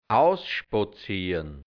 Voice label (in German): "ausspotziern", hianzisch, Dialekt, Burgenland; spazieren; ausgehen